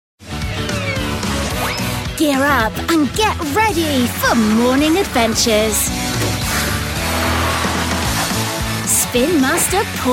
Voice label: kids tv and toy commercials